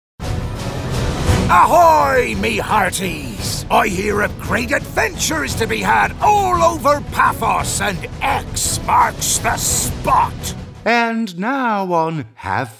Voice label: Character